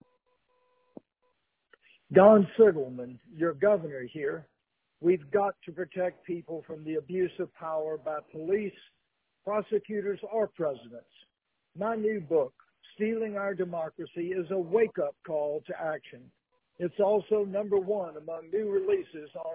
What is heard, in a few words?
Voicemail; Siegelman